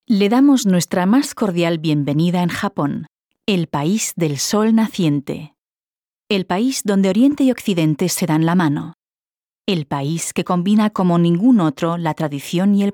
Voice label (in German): ESP Kommentar Neutral